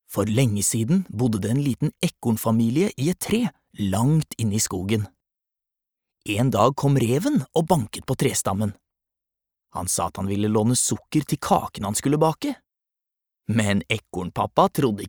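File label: Norwegian childrens book, male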